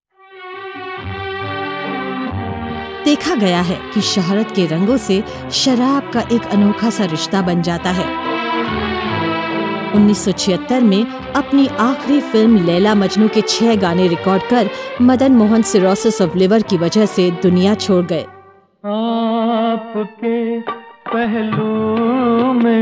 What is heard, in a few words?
voice over